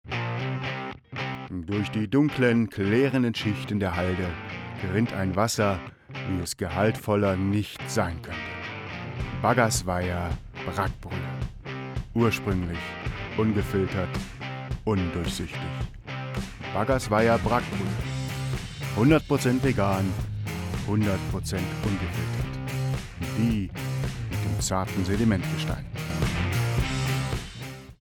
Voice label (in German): Spot mit Musik